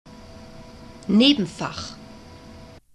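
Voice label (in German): "nebenfach", German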